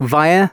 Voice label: announcements male

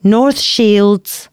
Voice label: announcements, female

